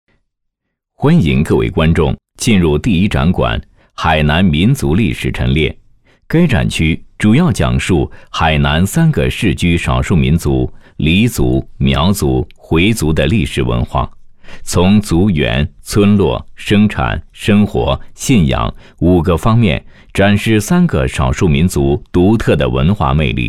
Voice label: 男 课件 海南民族历史成列

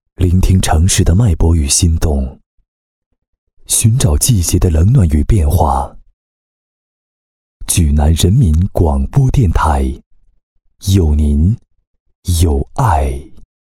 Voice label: 男 片花 电台片花

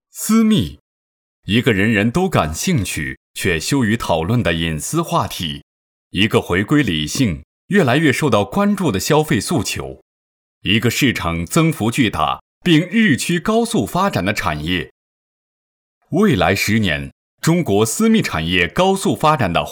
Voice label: 男 专题 私密样音

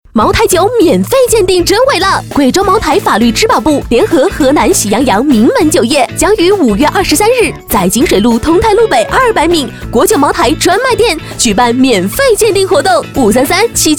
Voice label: 女-广告-(激情欢快); 贵州茅台

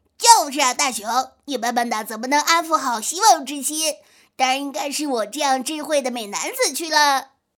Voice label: 女 模仿; 小夫

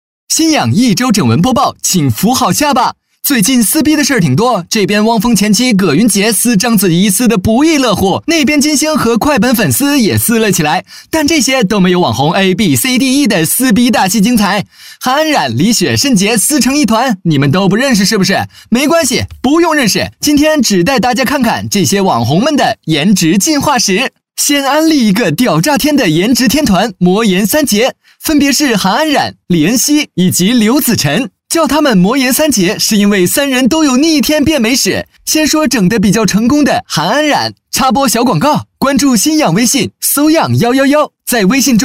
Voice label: 男 视频节目; 串词; 飞碟说; 优酷视频节目沉串词飞碟

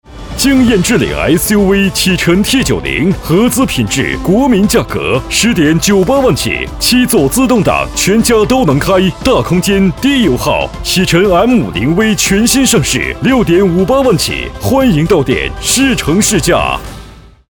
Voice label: 男 广告 大气激情; 东风启辰

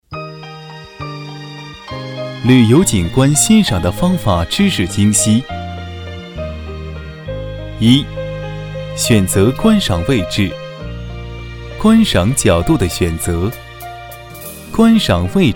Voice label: 男4 课件; 旅游景观欣赏

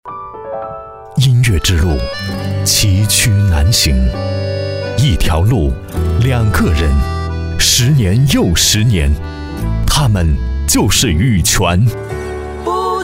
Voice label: 男 片花 宣传

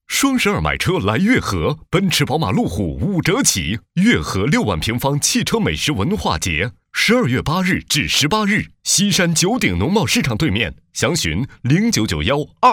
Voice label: 男 广告 电台广告

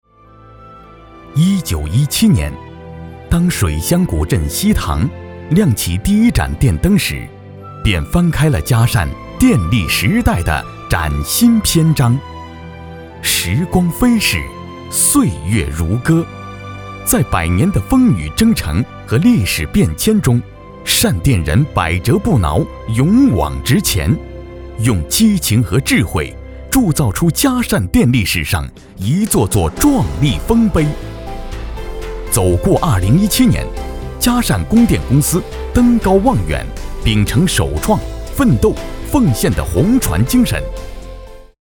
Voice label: 男 纪录片 电力; 嘉善电力

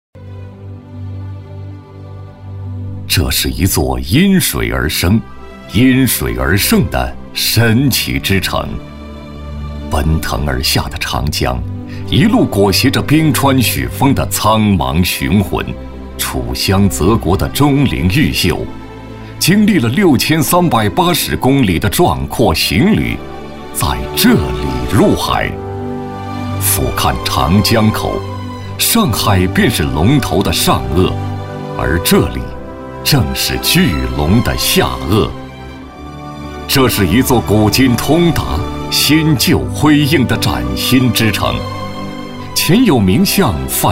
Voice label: 男 专题 招商专题片

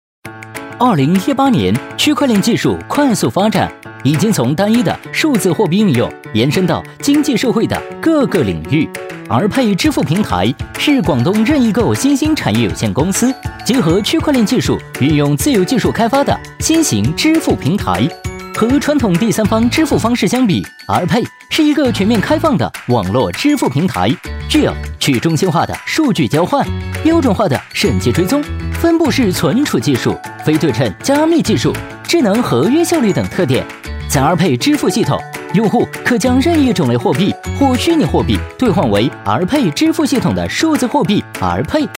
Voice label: 男

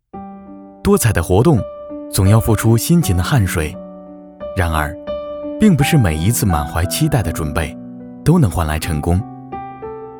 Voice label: 男 陶笛解说

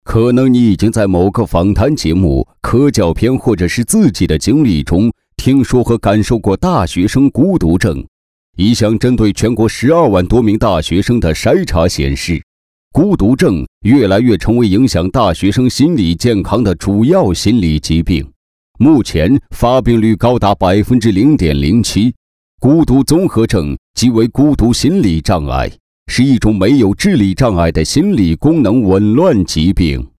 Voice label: 男 专题 大学生孤独症

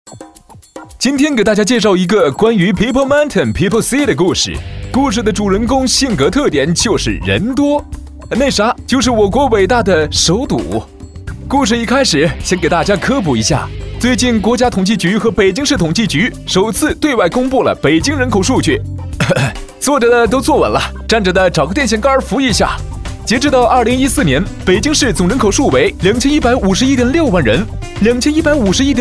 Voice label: 男

